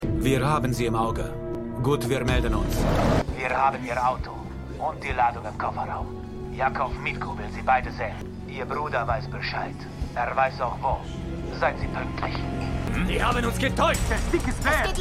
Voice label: Albaner

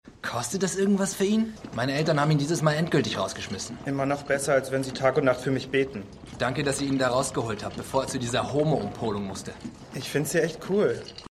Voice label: Jugendlicher, Stimmproben; Shameless

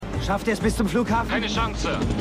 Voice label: Funkstimme, Stimmproben; Con Air

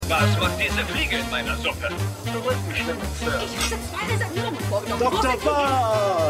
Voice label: Werbe Zeichentrickmann Werbe Kellner Werbe Kundin; Winning Time

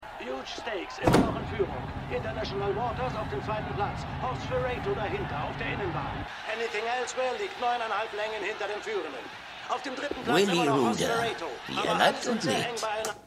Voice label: Radiosprecher; City On A Hill

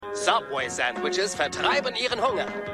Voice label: Werbesprecher; Happy Gilmore

